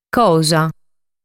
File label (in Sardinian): "Cosa", sardu